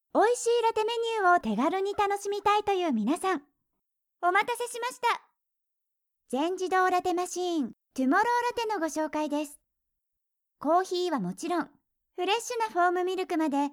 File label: voice akarui